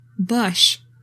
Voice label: "bush", english; 3000 words

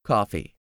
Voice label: "coffee", english; 3000 words

